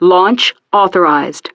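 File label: "Launch Authorised", English; DCS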